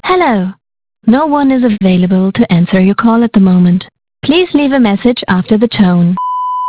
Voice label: Fritz box fon Mail Box